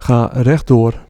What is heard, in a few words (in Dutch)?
Straight, Dutch, male